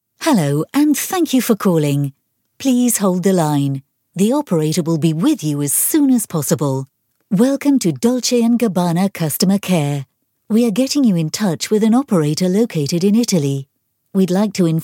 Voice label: ivr